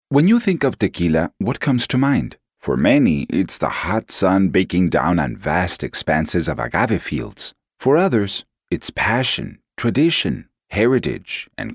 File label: English With Hispanic Accent